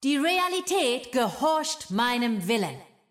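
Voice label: German; 秩序之光（守望先锋）; 终极技能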